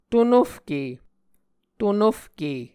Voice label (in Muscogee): "tonofke", Muscogee